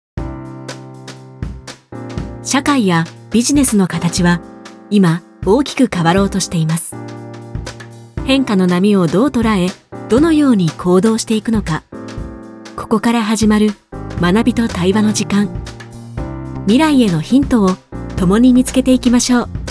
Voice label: インテリジェント（ビジネスセミナー

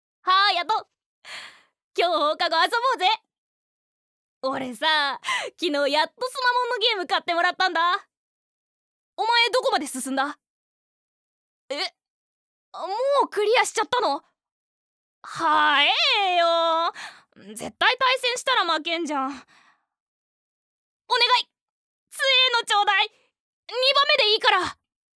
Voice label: 少年

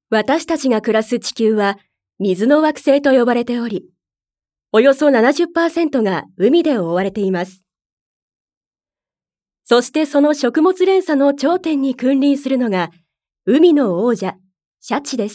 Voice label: ナチュラル

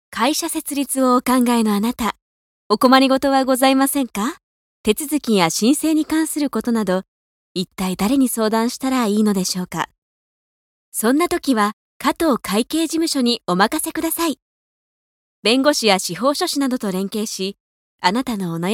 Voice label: ナレーション

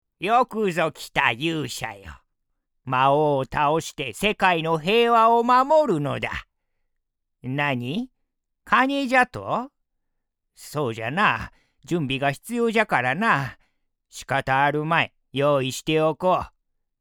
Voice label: 老け

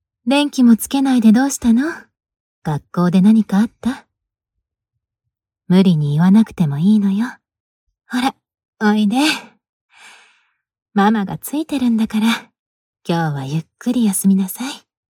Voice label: 母親 30代

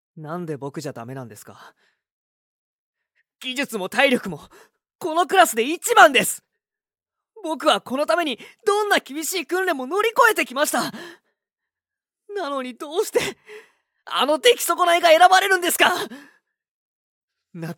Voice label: プライド高い候補生

